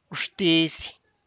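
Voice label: local authorities, Tlacochahuaya Zapotec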